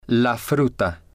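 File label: "la fruta", Spanish, male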